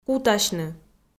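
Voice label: "кутасьны", Komi, female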